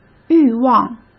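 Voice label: "欲望", Mandarin Chinese; chinese flashcards; hsk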